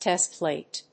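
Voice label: "test plate", English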